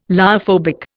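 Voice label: "lyophobic", Indian English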